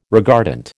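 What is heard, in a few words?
"regardant", American English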